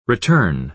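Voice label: "return", American English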